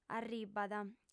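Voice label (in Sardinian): "arribat", lingua sarda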